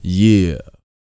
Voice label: YEAH, Vocal R&B & Dance, HIP-HOP; ILIO; Vocal Planet

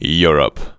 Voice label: "EUROPE", SPOKEN; Techno Trance Essentials

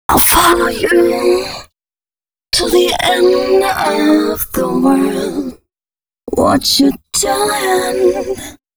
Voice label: female c# 135, short hooks, Dance Voice; Mutekki Media; Dance Ultra Voice VOL-1

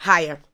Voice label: ADLIBS 90; Ueberschall; Jam Box

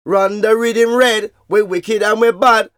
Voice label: Vocals; Jungle Frenzy 1

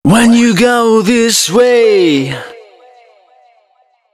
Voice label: male d# 130, short hooks, Dance Voice

